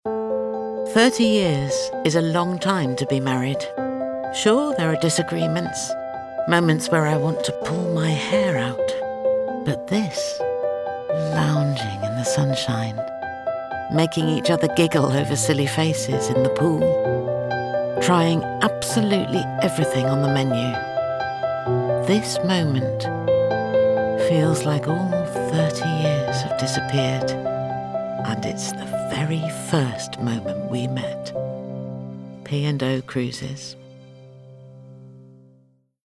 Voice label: Relatable, Tender, Compelling; PO Cruises